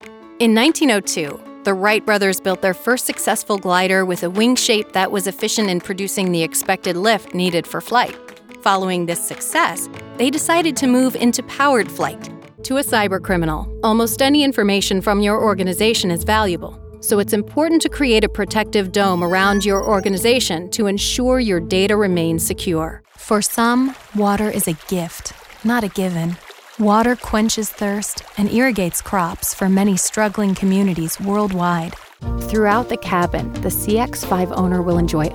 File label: Narration Relatable Connected